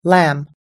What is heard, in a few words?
"lamb", US English